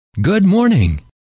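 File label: good morning, male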